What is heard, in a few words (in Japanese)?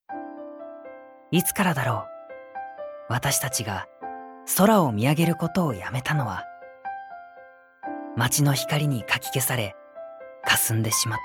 ナレーション